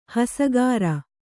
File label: "ಹಸಗಾರ", Kannada